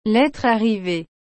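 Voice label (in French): "lettre arriver", French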